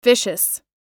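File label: "vicious", American English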